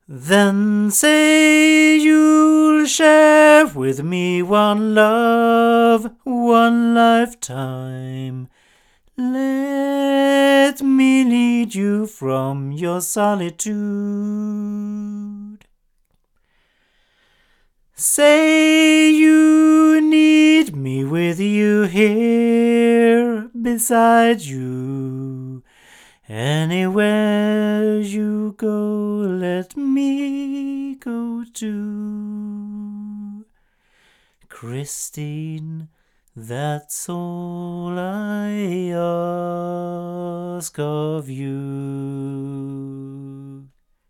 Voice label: A51ST omni male; ADK